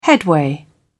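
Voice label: "headway", British English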